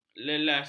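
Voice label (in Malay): "lelas", Malay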